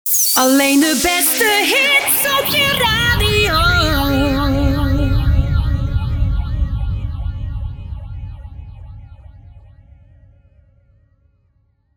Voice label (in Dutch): Alleen de beste hits op je radio (met SFX), jingles